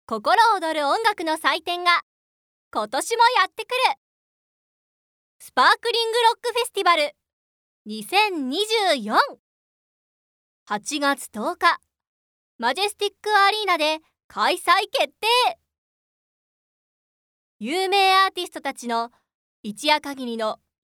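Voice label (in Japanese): ナレ, ボイスサンプル; 男性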